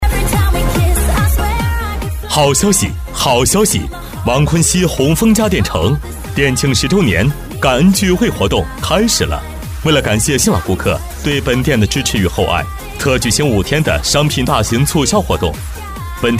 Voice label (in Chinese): 促销; 宏丰家电城